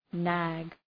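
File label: "nag", English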